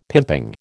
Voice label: "pimping", American